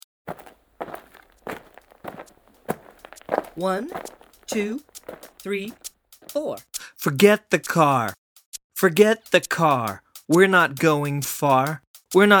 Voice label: walking, Jazz Chants; Environmental Conservation Jazz Chants